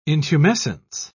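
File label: "intumescence", English, uk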